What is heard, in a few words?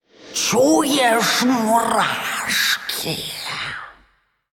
Androgynous Faceless